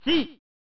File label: "Si!" (Male); pro mahjong kiwame; snes